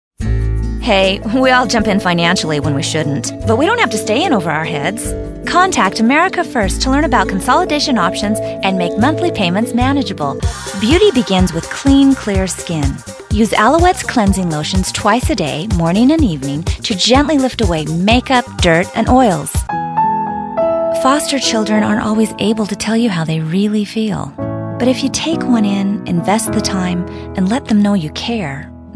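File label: commercial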